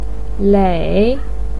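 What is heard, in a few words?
"lei" third tone, chinese, voice pinyin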